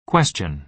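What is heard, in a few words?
"question", American English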